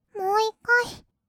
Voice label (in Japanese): "もう1回", kawaii voice; ASMR; 色々なワンショット